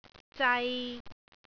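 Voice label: "zai3", Cantonese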